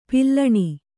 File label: "ಪಾಲುಣ", Kannada